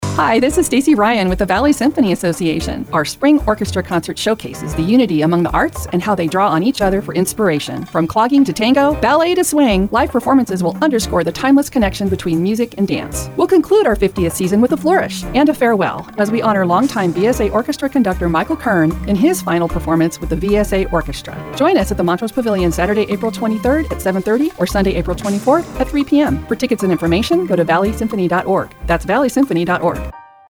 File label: radio spot